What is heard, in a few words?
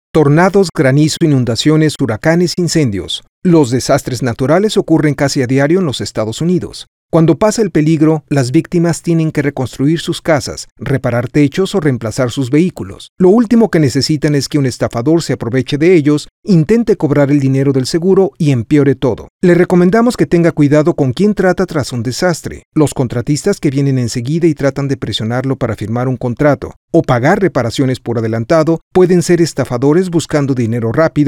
Contractor Radio Spanish; NICB